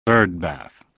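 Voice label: "birdbath", spoken word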